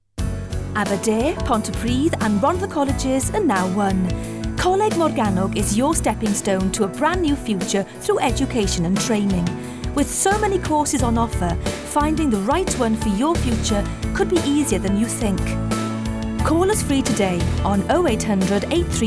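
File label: Welsh, female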